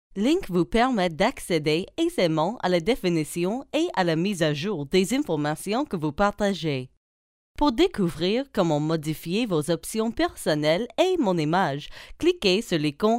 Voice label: french canadian voiceover locutora canadiense

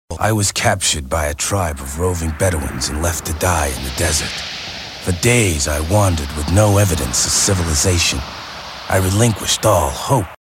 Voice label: locutor norteamericano northamerican voiceover

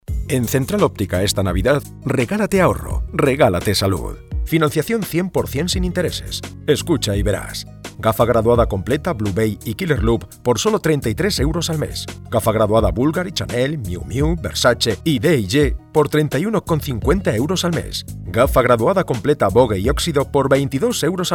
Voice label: locutor spain spanish voiceover; Spanisch Sprecher

